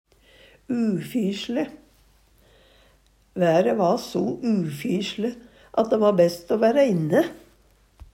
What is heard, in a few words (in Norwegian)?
ufysle, Numedalsmål; Adjektiv